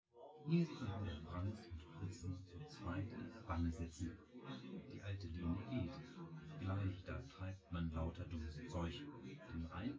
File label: male synth conv